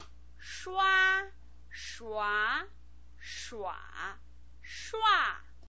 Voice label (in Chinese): "shua", pinyin